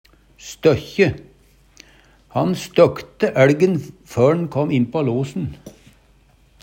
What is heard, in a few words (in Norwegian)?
støkkje, Numedalsmål